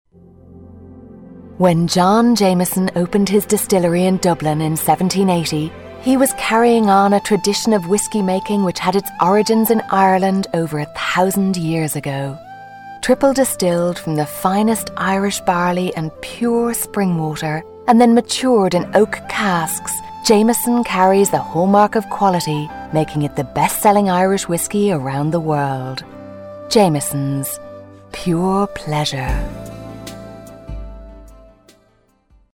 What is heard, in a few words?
Commercial; Jamesons; Vocal Point